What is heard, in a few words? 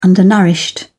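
"undernourished", English, British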